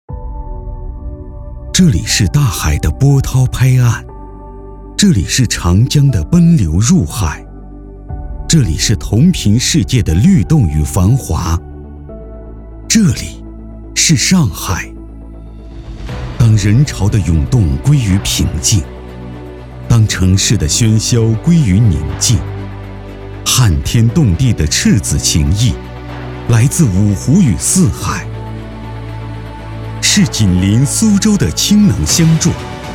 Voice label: 男 走心旁白

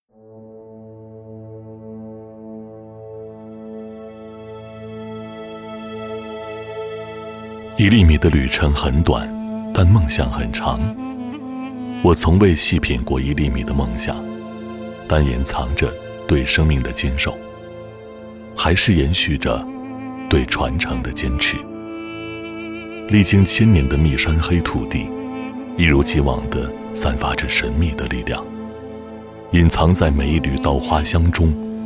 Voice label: 男 广告 自然讲述 香米; 福霜香米

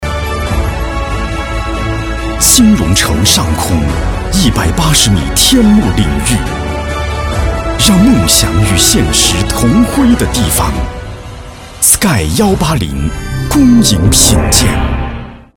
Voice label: 男 地产 浑厚大气 金融城浑厚大气高贵国际化地产品牌广告

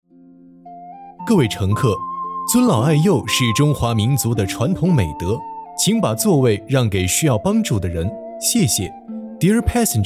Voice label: 男 语音播报 各位乘客 中英

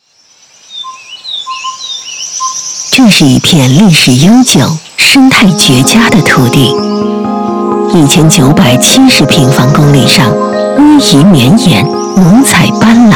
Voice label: 女 专题 厚重质感 地方特产宣传片

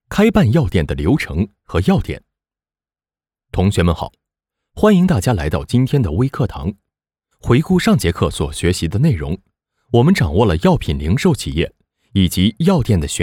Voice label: 男, 课件; 开办要点的流程和要点

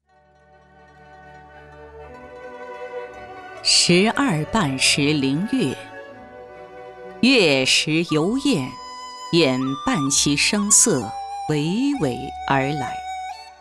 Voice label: 女-诗歌朗诵

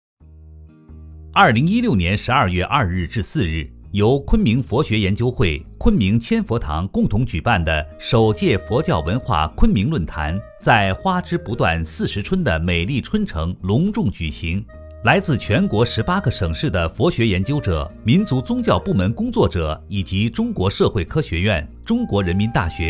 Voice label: 男 新闻专题

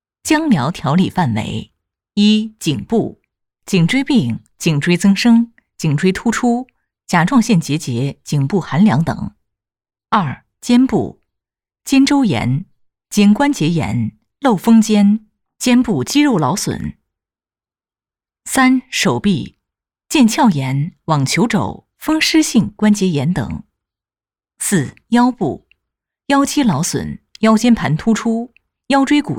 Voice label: 女, 叙述, 介绍, 复古; 姜疗的作用

